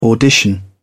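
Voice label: "audition", British English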